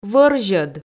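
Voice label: "вӧрзьӧд", Komi, female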